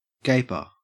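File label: "gaper", Australian English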